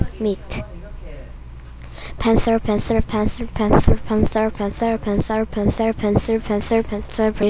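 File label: "pencil", spoken word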